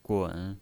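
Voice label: "gun", Mandarin Chinese, third tone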